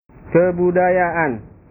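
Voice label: "kebudayaan", Indonesian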